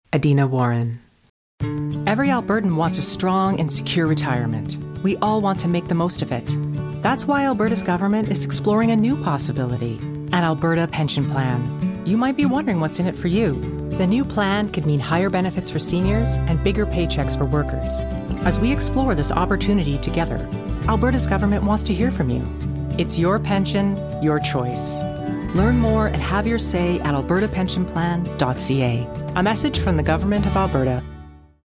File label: Commercial